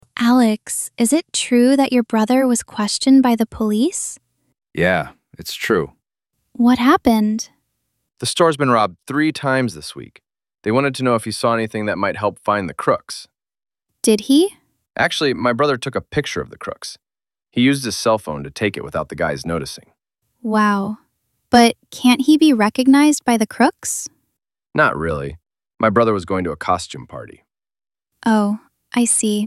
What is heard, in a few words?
Passive voice audio